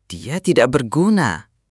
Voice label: indonesian phrases

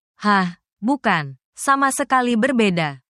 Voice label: indonesian phrases

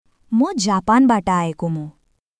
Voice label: Nepali, basic phrases

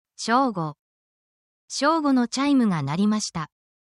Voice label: japanese days time

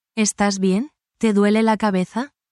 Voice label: spanish, spanish phrases

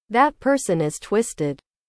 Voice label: english

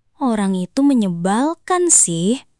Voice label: indonesian phrases

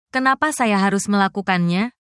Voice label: indonesia, indonesian negative phrases

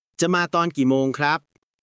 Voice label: Thai, basic Thai phrases

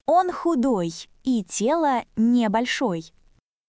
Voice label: Russian, russian phrases insults; ロシア語のネガティブなフレーズ

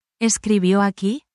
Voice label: spanish, spanish phrases

